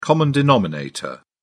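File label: "common denominator", British English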